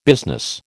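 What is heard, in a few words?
"business", American English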